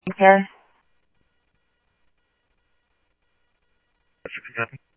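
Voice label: Simulcast police department, scanner audio trunk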